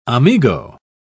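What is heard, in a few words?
"amigo", English, British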